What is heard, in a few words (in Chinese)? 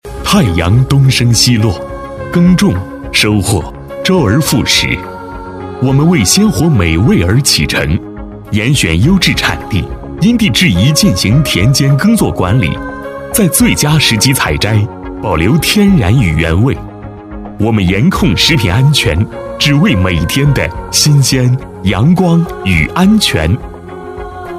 男, 宣传片, 食品安全; 鲜活基地